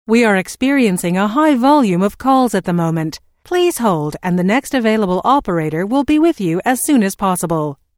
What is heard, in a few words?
Telephone prompt: We are experiencing a high volume of calls at the moment please hold and the next available; Operator; Recorded Voice Sound Effects